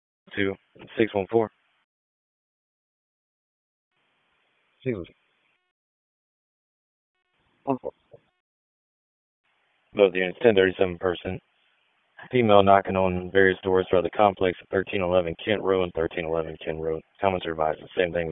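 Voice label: Police Dispatch, p25; Raleigh Police Southwest District Disp; wake p25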